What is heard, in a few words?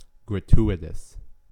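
"gratuitous", US English; pronunciations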